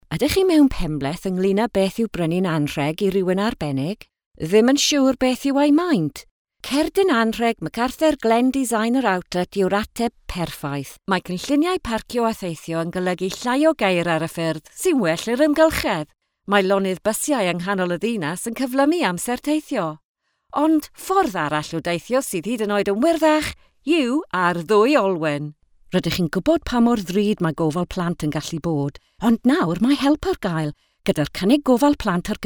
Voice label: Welsh language Commercial